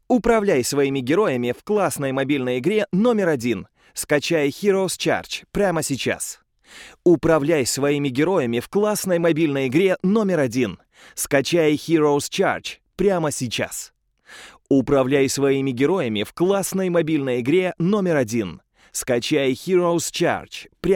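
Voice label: Russian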